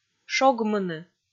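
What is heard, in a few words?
"шогмыны", Komi, female; kpv audio dict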